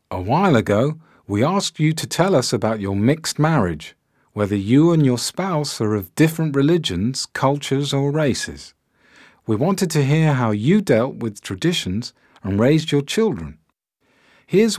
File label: Narrator